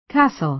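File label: "castle", American English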